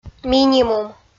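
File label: "минимум", Komi, female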